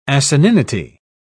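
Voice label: "asininity", English, British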